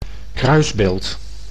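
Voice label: "kruisbeeld", Dutch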